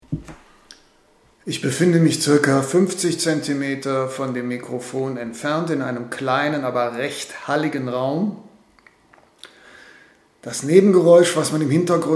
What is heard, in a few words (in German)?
Sprache 50 cm mit High Pass